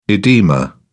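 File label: "edema", British English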